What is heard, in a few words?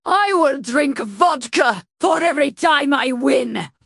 happy; fertig classic games